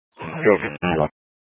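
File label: Fire Dispatch, Scanner audio recording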